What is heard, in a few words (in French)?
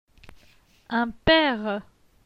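"Un pere", French; phonetique; les consonnes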